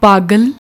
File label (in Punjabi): "pagal", Punjabi